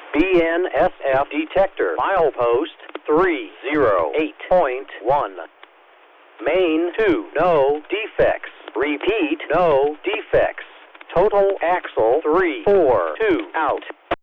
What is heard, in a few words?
defect detector